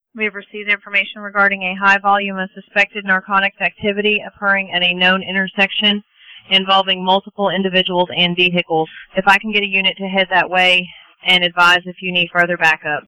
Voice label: DETAILED DISPATCH EXAMPLE

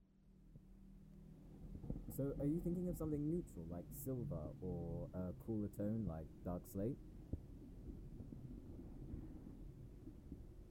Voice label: Stereo Test

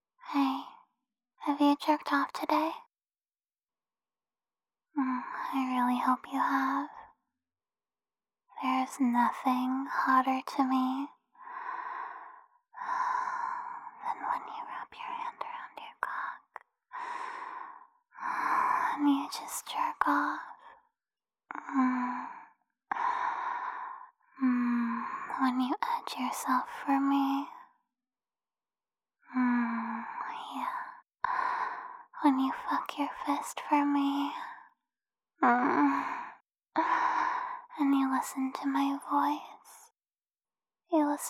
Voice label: voicemail encouragement

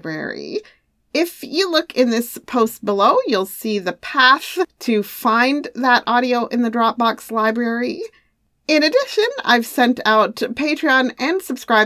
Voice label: December Live Event Announcement

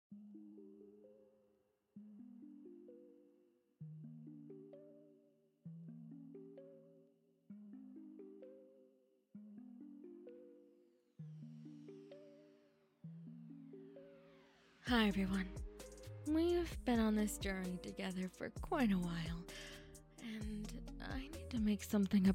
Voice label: positive message, asmr

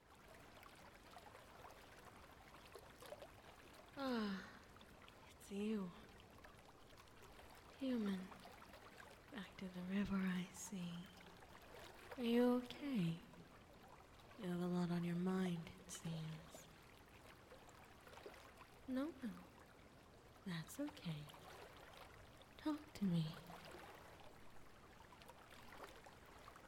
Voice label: Positive Message, asmr

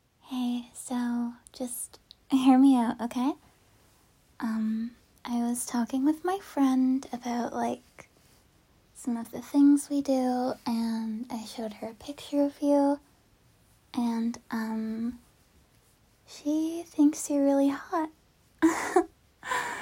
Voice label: Voicemail; friend

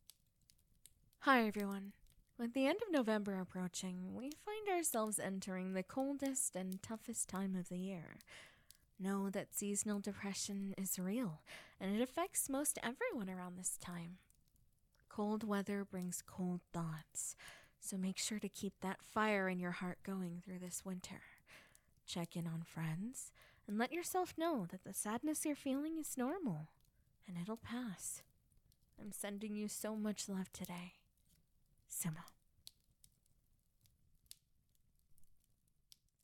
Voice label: Positive Message, asmr

